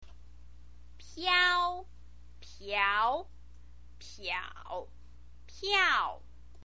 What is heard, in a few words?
"piao", chinese pinyin